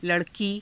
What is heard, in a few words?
"la rakii", Hindi; [女]少女; लड़की